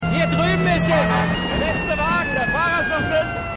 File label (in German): Mann; Rescue Me